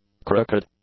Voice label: "crooked", English; adjectives